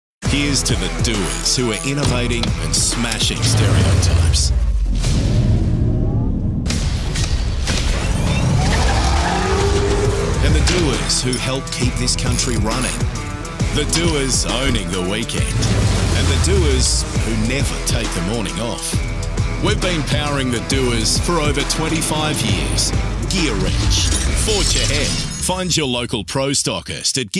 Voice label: Broadcast Audio